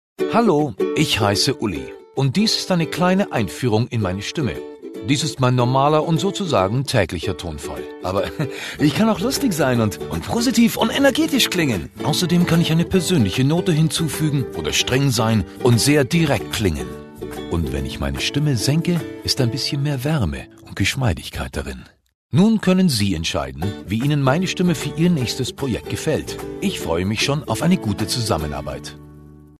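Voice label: German, male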